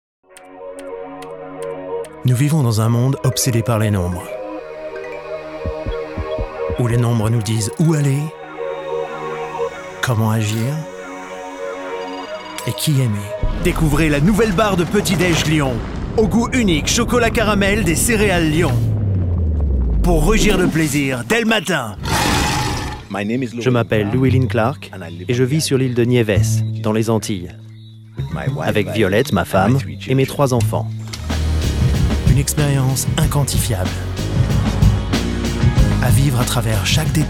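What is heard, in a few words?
French, Commercials; GoLocalise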